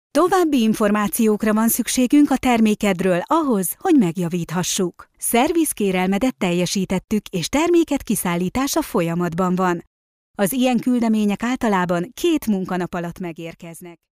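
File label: Hungarian, female, explainer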